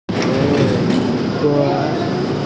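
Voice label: "er3duo5", Mandarin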